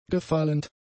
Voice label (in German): "gefallend", German; adjectives